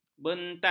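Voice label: "bentat", Malay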